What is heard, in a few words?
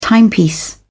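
"timepiece", British English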